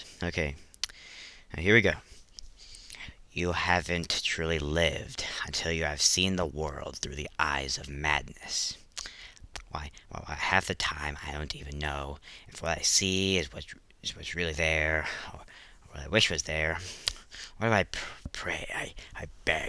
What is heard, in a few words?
voice tryout; vezon